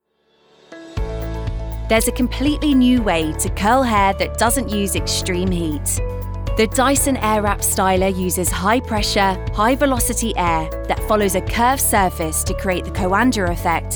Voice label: English British narration

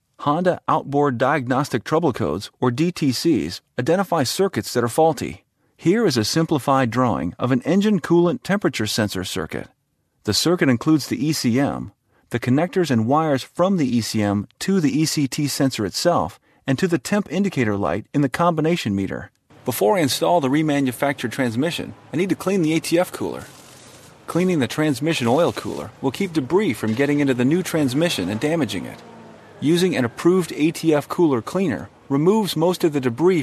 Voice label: english american training e-learning

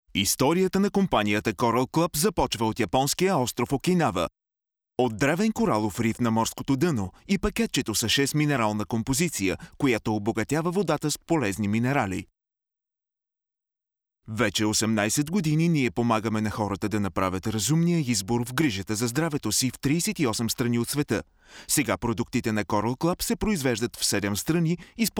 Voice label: bulgarian documentaries

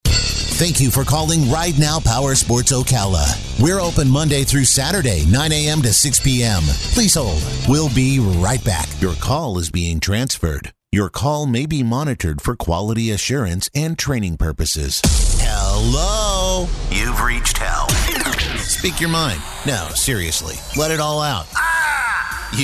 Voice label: english american ivr

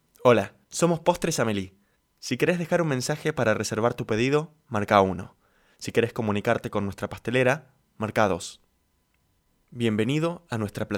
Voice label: spanish argentinian ivr

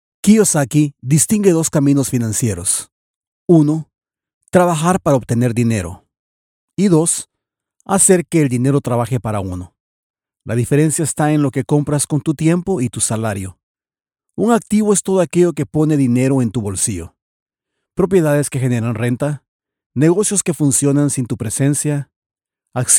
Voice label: spanish latin american audiobooks

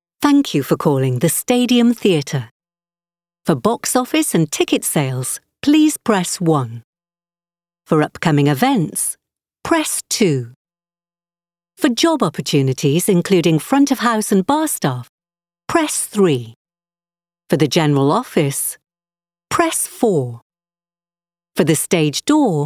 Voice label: english british ivr

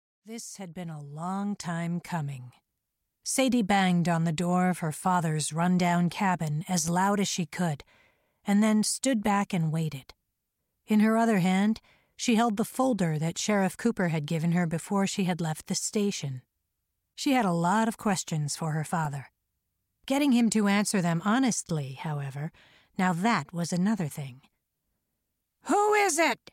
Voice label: english american audiobooks

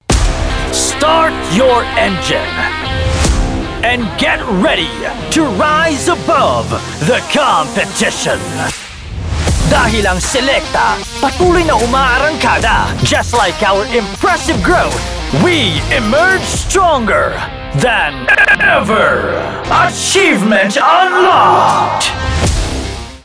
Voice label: english others

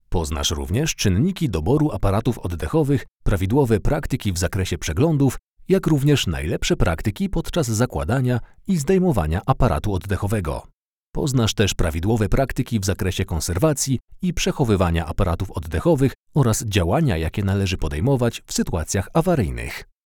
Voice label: polish e-learning

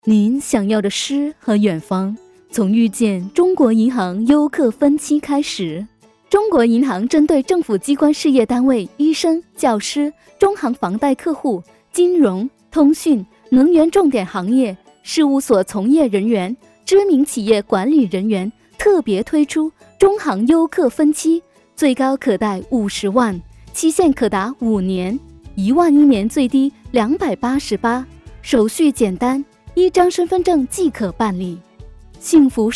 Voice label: Chinese Mandarin commercial

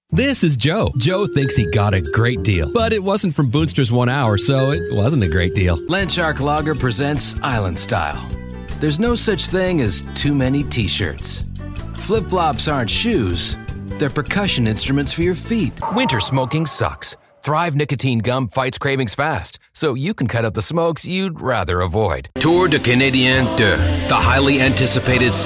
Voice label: english canadian commercial

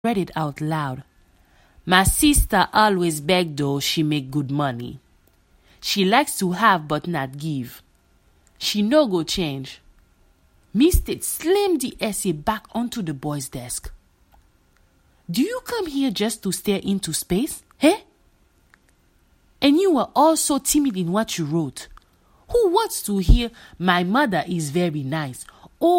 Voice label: afrikaans commercial

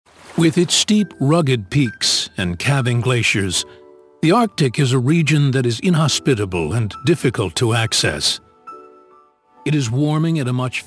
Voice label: english american documentaries

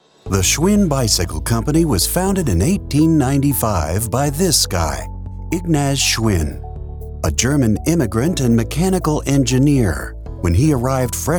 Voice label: english american documentaries

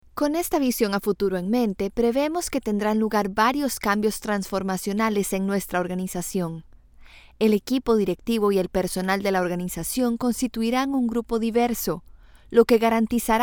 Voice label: spanish latin american e-learning

